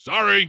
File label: punk sorry, vox; Dystopia; vox new